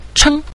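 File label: "cheng", Mandarin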